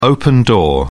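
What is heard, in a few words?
"open door", British English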